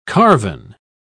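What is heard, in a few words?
"carven", English, British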